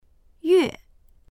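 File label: "yue4", Mandarin Chinese; 月; ～月（日付）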